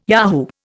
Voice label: "yahoo", Indian